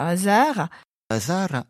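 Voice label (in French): serra, sarra, Corsican, a serra (N) / a sarra (S); Corse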